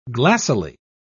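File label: "glassily", British English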